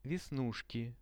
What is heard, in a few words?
"vesnushki", Russian; веснушки; Это веснушки.; visual dictionary